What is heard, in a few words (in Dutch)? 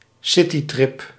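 "citytrip", Dutch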